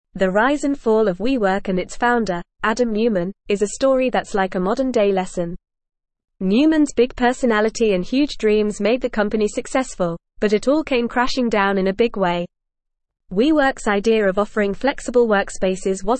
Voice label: Upper Intermediate FAST Reading, English; The Rise and Fall of WeWork A Cautionary Tale; English Newsroom

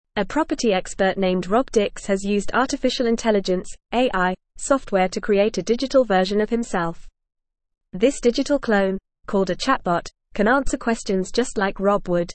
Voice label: English Newsroom Upper Intermediate FAST Reading

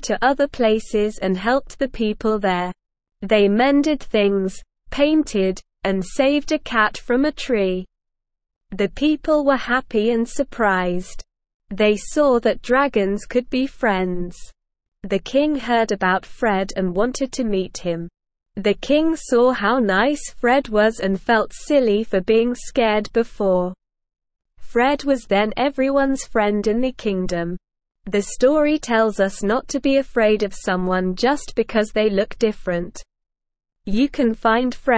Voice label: ESL Short Stories for Kids Beginner SLOW Reading; The Friendly Dragon

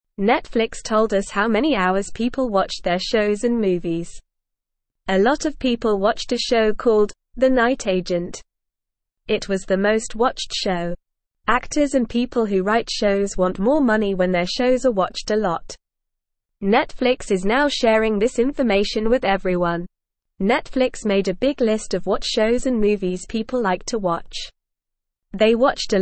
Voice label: Beginner NORMAL Reading, English

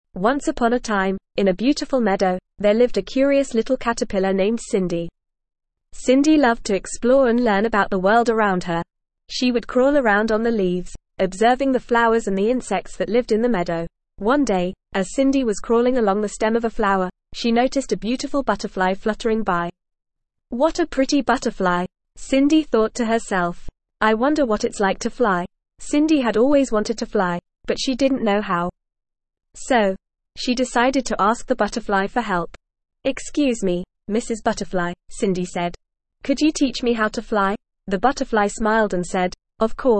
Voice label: ESL Short Stories for Kids NORMAL reading; Cindy the Curious Caterpillar

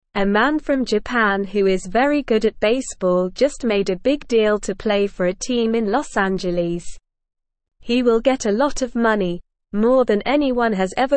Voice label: English Beginner SLOW Reading; Japanese Baseball Star Signs Big Deal with Los Angeles

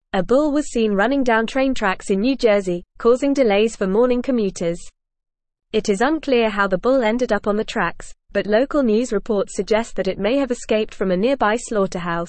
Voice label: English Newsroom Advanced FAST Reading; Bull on New Jersey train tracks causes delays

